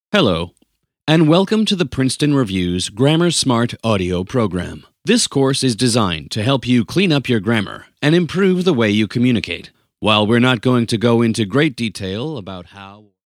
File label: edu